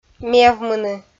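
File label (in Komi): "мевмыны", Komi-Zyrian, female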